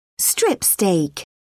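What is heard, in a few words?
"strip steak", British English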